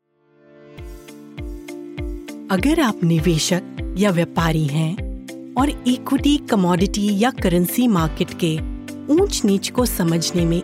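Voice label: Hinglish Commercial; India Charts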